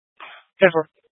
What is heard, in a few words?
Police, scanner; Scottsdale Police; Thompson Peak High